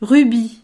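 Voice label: "rubis", French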